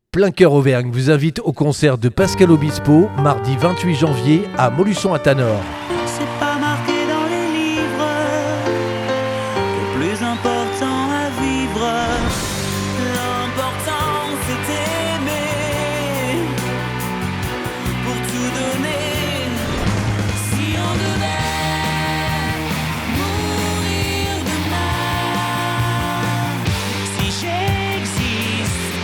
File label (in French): promo; PLEIN COEUR